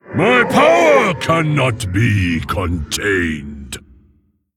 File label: Male Mogu Picked